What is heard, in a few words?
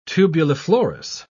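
"tubuliflorous", British English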